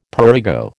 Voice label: "prurigo", American

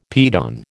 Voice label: "piton", American

